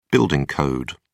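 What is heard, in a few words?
"building code", British English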